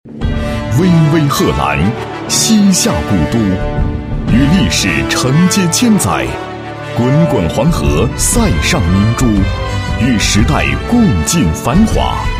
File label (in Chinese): 男 项目解说; 河东国际机场